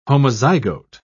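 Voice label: "homozygote", British English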